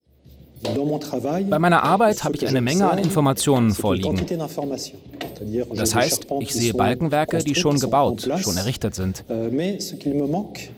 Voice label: voiceover